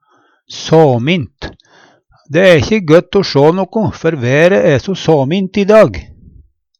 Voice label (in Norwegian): samin, Numedalsmål